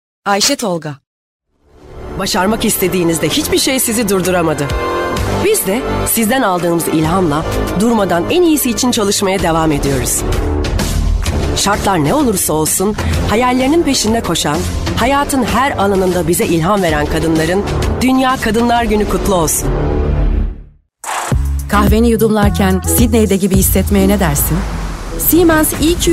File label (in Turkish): turkce kadin